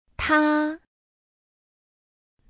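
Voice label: "她", Chinese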